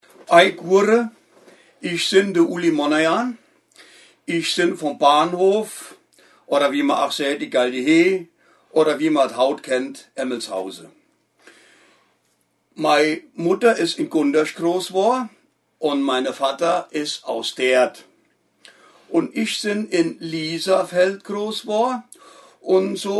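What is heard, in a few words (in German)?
vorstellung, O-Ton Hunsrück; Emmelshausen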